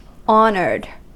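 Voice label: "honoured", US English; pronunciations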